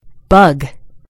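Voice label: "bug", American English; gcompris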